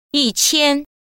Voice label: number thousand mandarin